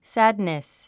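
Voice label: "sadness", English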